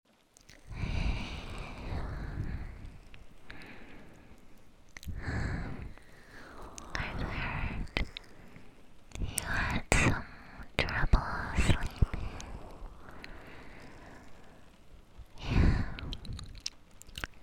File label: ASMR Roleplay WITH RAIN